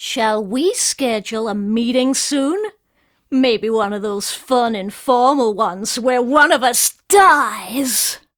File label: Remind Taunt; Warframe; Corpus Lich; Persona D